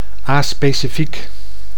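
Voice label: "aspecifiek", Dutch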